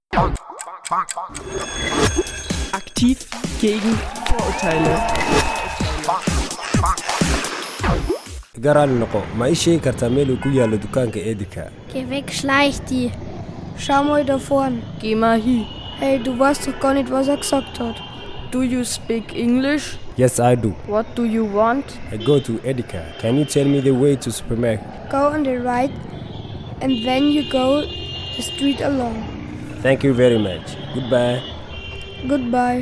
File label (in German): Werbespot